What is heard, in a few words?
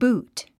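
"boot", English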